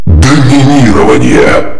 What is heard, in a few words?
double kill ultimate, male